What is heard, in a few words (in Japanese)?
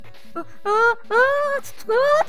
ダメージボイス; 悪魔城ドラキュラ(FC版)